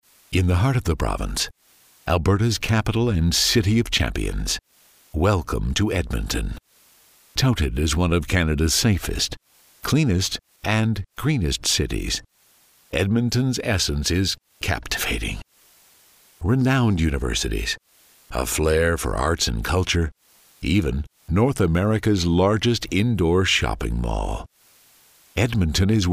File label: narration